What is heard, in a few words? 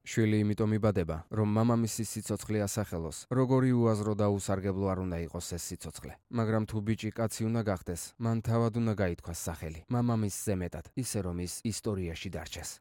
Georgian